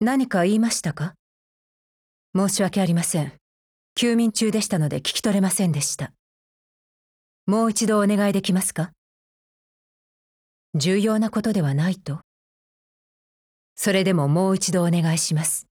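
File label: DIALOGUE, Japanese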